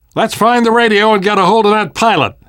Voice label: world hospital, namvet, survivor voice